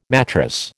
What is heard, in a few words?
"mattress", American